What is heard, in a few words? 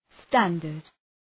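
"standard", English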